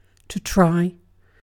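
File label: "try", British English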